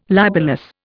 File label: "libellous", Indian